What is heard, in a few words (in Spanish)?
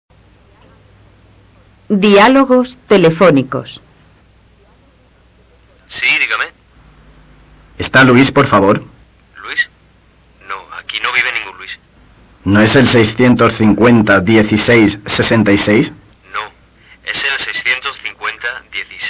dialogos telefonicos